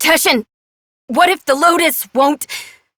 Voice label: Operator Voice; New War; Torpedo